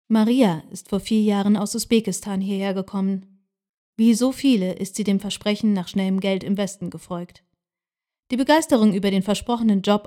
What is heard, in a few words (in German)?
Dokumentarfilm; Social Drama